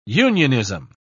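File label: "unionism", British English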